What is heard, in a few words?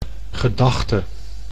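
"gedachte", Dutch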